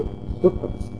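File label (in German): "suppe", German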